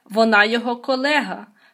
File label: dialogue, Ukrainian